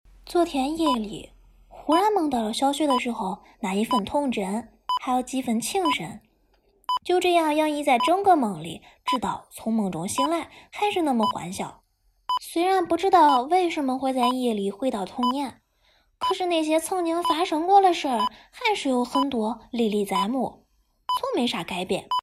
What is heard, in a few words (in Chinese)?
河南话 方言 散文